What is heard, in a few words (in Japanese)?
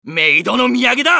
マスケッティア 男; ゼロイン